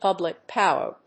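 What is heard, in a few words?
"public power", English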